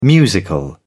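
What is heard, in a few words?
"musical", British English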